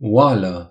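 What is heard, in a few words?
"pot", Romanian; gcompris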